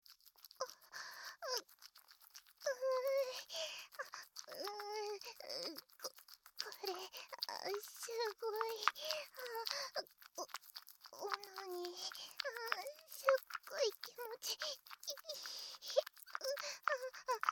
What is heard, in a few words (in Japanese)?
オナニーボイス; ASMR